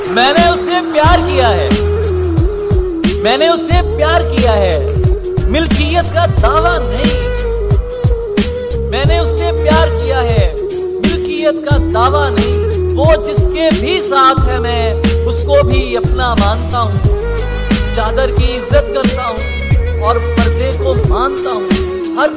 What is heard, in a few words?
Love Shayari Ringtone